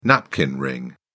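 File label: "napkin ring", British English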